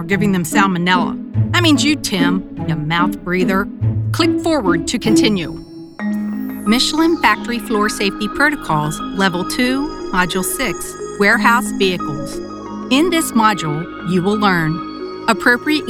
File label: English American E LEARNING